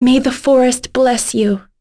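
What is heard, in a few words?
Vox Victory, voices heroes English; Lorraine; kings raid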